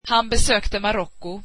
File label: swedish he visited Morocco